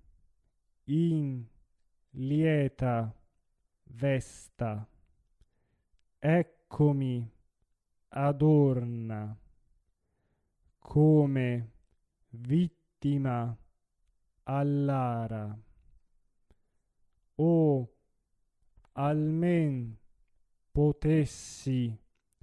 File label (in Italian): Oh quante volte recitativo monotono; Bellini